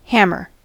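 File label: "hammer", American English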